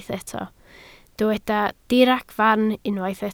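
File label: "diragfarn" Welsh, spoken word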